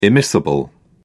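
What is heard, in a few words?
"immiscible", British English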